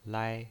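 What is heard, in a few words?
"lai", pinyin, Mandarin Chinese, first tone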